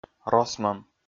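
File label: "росмӧм", Komi, male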